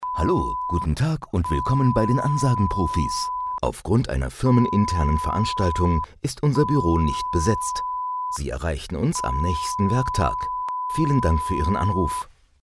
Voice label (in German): Sprecher piep Ansage